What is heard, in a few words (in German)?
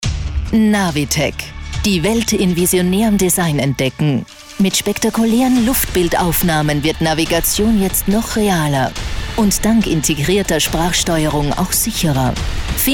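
Austrian, Fremdtake Kraftvoll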